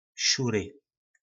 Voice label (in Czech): "Šury", nářečí Po našimu; Křivý